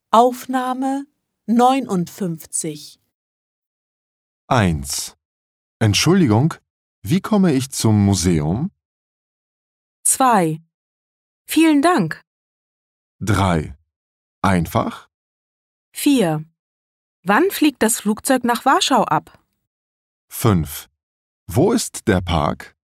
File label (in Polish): niemiecki nagranie sprawdzian; klasa8